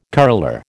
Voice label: "curler", American

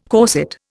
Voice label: "corset", Indian

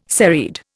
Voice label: "serried", Indian

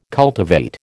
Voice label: "cultivate", American

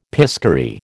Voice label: "piscary", American

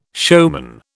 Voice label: "showman", British

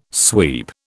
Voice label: "sweep", British

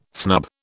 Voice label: "snub", British

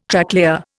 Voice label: "Tracklayer", Indian

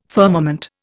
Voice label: "firmament", Indian

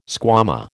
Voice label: "squama", American

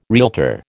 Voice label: "realtor", American

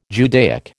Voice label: "Judaic", American

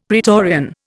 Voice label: "praetorian", Indian; Dictionary

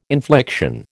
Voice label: "infliction", American

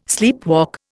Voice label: "sleepwalk", Indian

